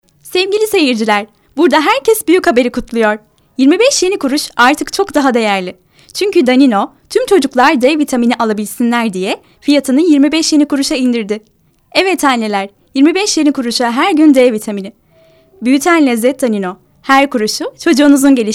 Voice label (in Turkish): reklam